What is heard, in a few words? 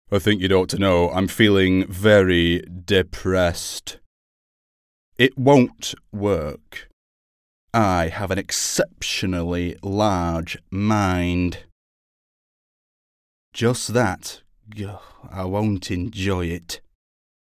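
English UK, character; GoLocalise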